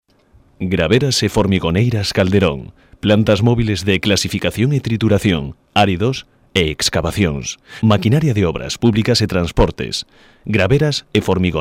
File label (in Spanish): locutor gallego; Galician male voiceovers